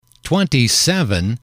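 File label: "twenty seven", English, male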